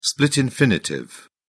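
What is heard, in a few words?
"split infinitive", British English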